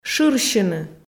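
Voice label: "шырсьыны", Komi, female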